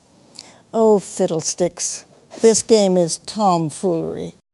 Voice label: upset; fertig classic games; ethel